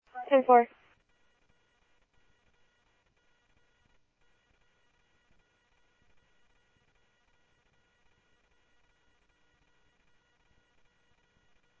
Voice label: Security, scanner audio